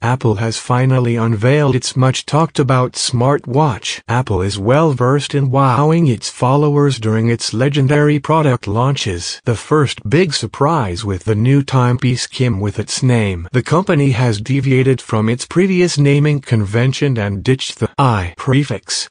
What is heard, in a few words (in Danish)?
Engelsk US